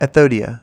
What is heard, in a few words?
Aethodia Speech Aethodia In English; Technocratic Republic of Aethodia; MicroWiki